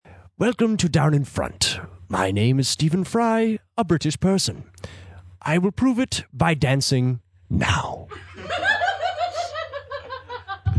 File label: outtake british person